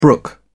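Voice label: "brook", British English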